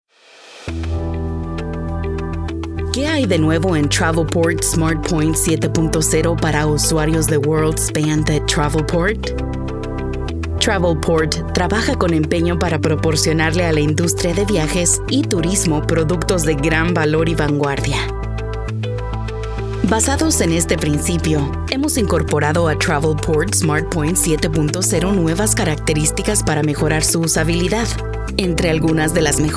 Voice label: Corporate E-learning Spanish